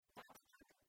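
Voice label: "батькӧд", Komi, female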